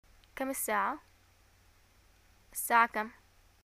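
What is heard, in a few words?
what time is it, Arabic, Yemen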